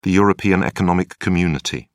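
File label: "european economic community", British English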